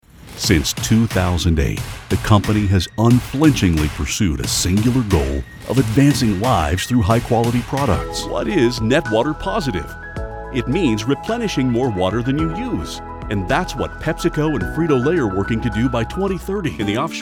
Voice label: Corporate Narration